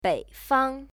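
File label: "beifang", Chinese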